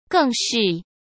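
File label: "更是", Mandarin Chinese; chinese-flashcards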